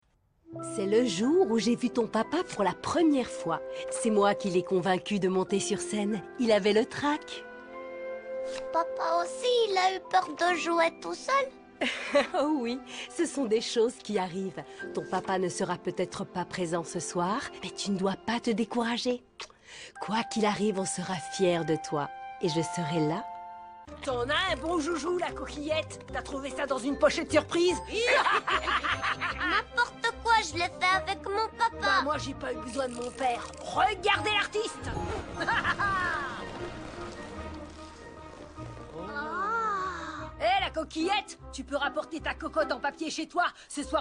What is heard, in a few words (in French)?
Doublage dessin animé maman lapin vieille garçon